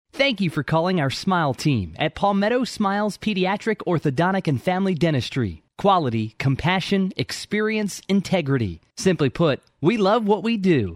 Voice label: On Hold; Palmetto Smiles

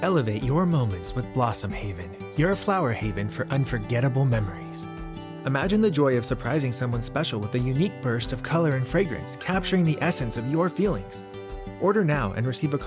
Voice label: Ad

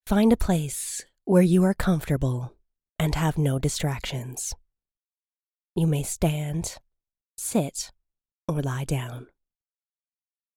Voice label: Meditation

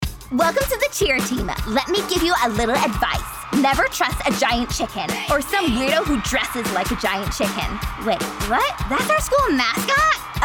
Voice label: Animation

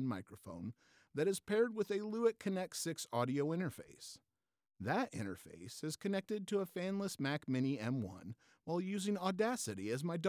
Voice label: Home Studio

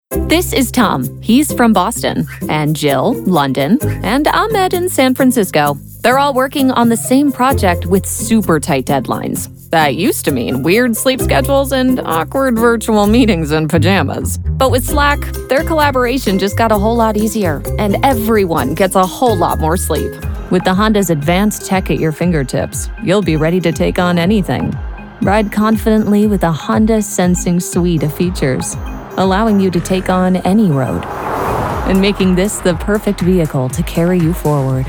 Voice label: Corporate narration

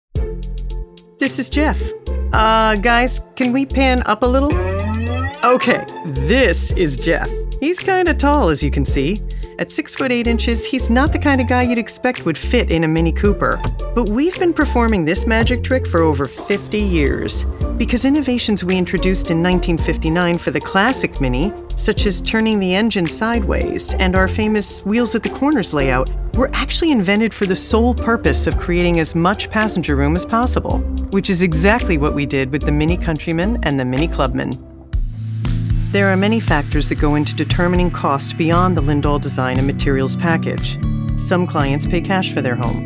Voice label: EXPLAINER

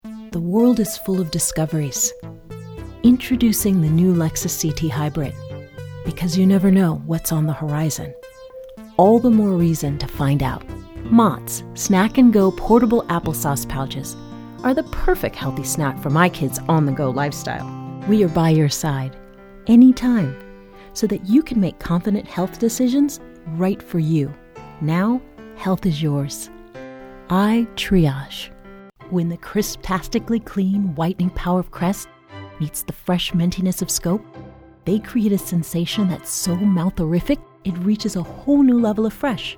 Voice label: Commercial

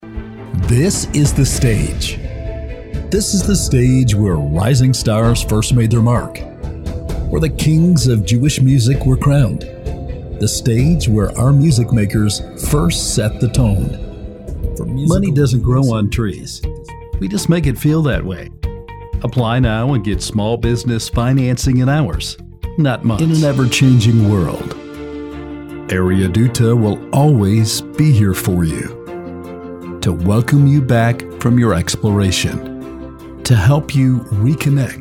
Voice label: Voice Over Sample

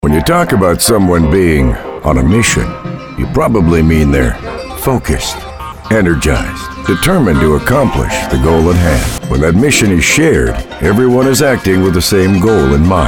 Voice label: Corporate

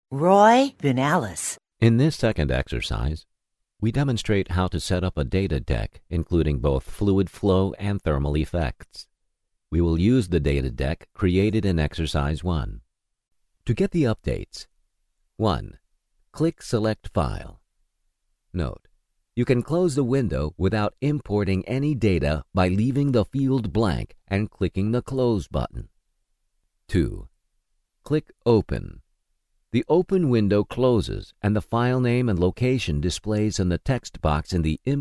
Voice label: eLearning

